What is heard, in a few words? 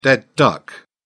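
"dead duck", en, British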